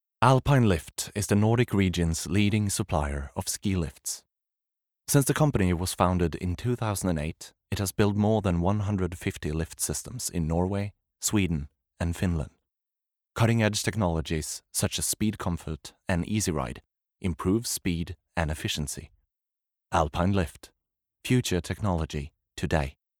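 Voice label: Norwegian English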